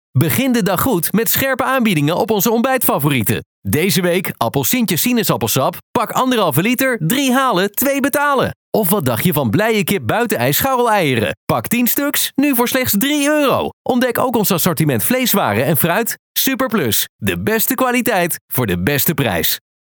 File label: Casual Conversational